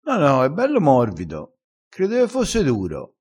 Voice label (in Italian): "morvido", crespinese